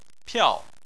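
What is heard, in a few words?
"piao" fourth tone, Chinese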